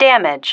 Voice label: "damage", female